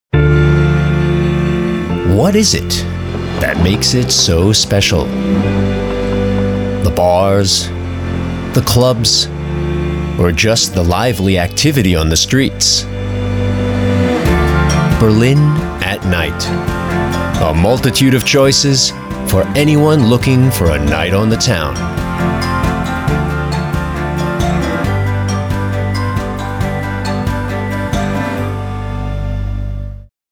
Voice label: ENGLISCH USA MALE Voice Over Industriefilm Trailer; DW Trailer Berlin